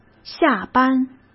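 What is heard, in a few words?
"下班", Mandarin Chinese; chinese flashcards; hsk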